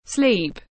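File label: "Sleep", English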